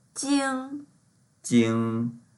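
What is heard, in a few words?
"jing1" Mandarin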